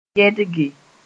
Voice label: "yɛdge", moore